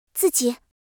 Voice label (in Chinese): "自己", Mandarin